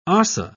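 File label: "ossa", British English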